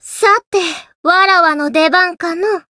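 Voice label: Voice variable chant, Japanese; leviachan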